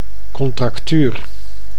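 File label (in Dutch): "contractuur", Dutch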